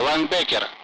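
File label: Speech Russian; Allied Flight; AirDefence; Linebacker; DCS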